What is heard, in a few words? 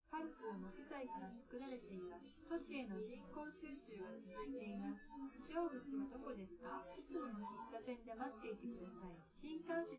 female live rec; SiSEC10; underdetermined